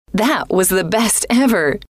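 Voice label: that was the best ever, female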